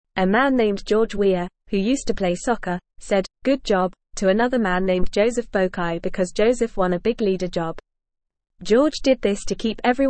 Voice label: English Newsroom Beginner FAST Reading; George Weah Congratulates Joseph Boakai Making Liberia Happy